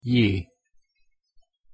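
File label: "j", Hungarian pronunciation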